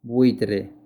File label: Vulture spanish